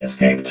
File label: "escaped", robot vox